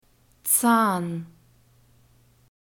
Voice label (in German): "zahn", German; alphabet